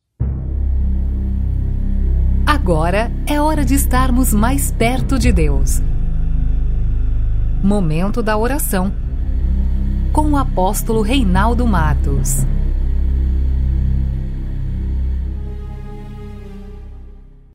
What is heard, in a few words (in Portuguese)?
vinheta oracao